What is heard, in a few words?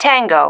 "tango", female